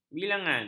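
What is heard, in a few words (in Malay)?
"bilangan", Malay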